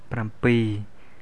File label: khmer seven